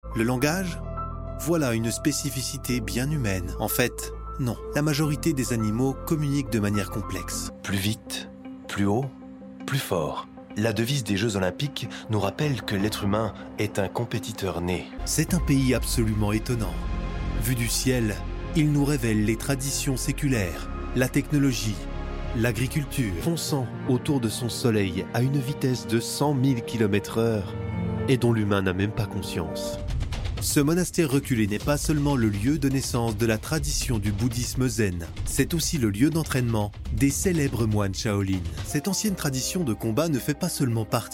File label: french documentaries